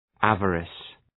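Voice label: "avarice", English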